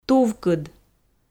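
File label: "тувкыд", Komi, female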